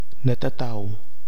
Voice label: "natatau", Nafe (Kwamera); tattoo

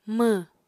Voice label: "mww", Apatani

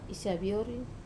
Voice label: "saviori", to whistle, wamesa

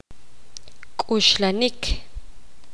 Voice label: poqomam; pensar

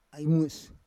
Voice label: "ai mues", Roon

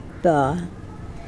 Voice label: "hand", Mokilese

